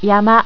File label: "yama", japanese